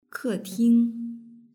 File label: "keting", Mandarin; house